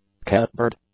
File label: "Catbird", English; nouns